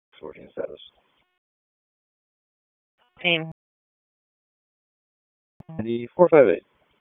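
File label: Raleigh Police Southwest District Dispatch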